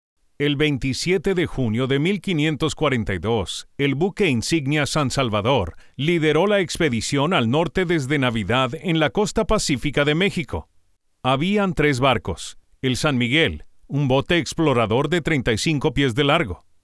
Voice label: Spanish Narrator

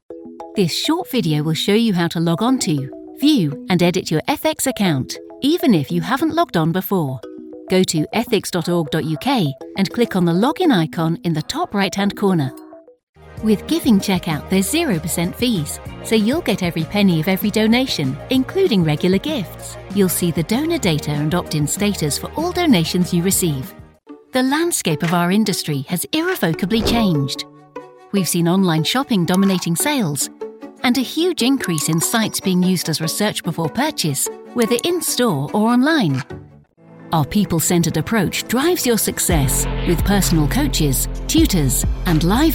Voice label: Corporate

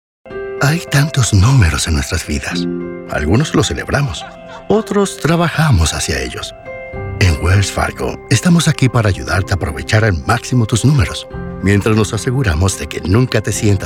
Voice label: Spanish Commercials

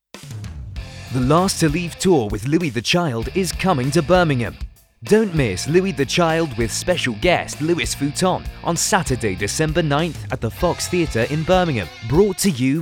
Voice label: Radio UK

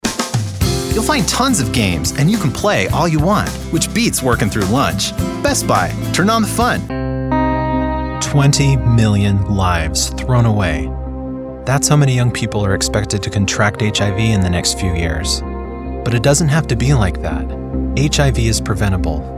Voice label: Commercial Voice

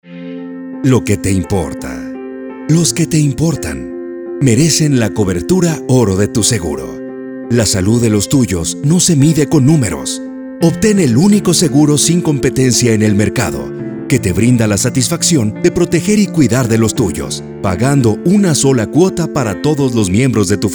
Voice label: Male Spanish Health

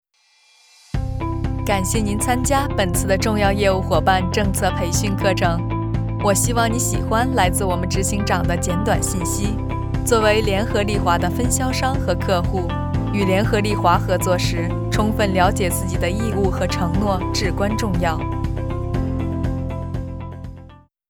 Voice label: chinese voiceover; Unilever

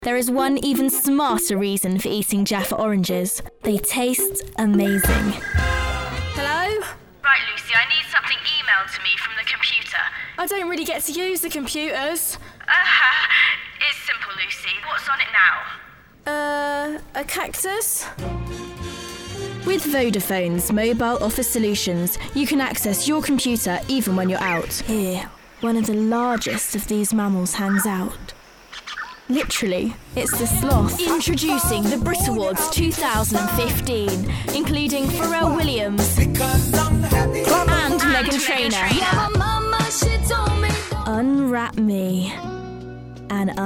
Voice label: Commercial Montage

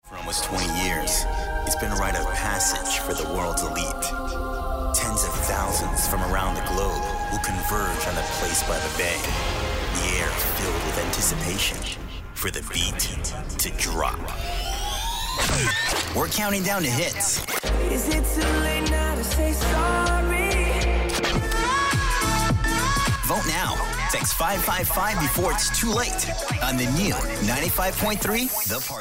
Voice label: Top40 Radio Imaging

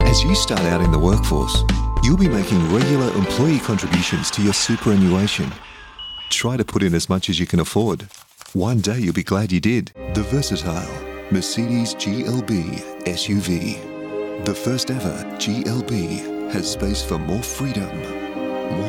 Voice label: commercial breaks

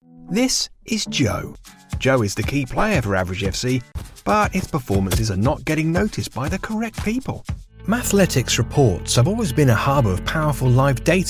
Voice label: Explainer

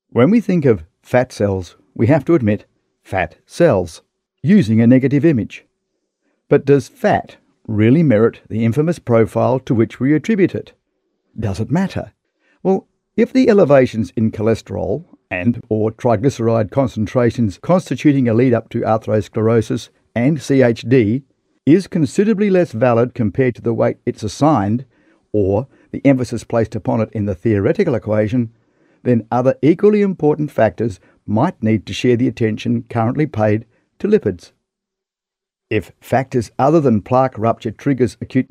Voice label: Medical voiceover

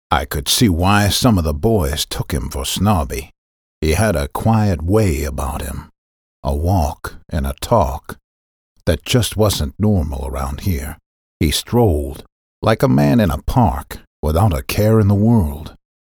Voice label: Morgan Freeman soundalike

